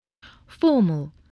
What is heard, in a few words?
"formal", English voice, spelling